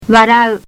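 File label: "warau", Japanese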